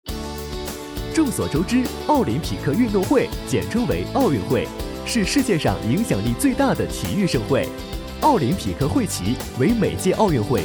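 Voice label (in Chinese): 青春活力动画解说; 奥运会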